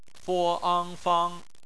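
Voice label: "fang" bopomofo, Chinese; BPMF spelling; phonetics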